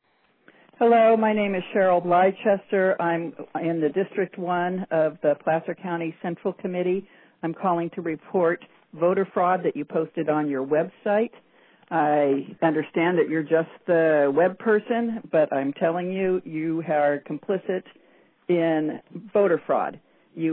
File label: Voice Mail Recording; Placer GOP; Voter Fraud